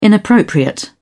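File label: "inappropriate", British English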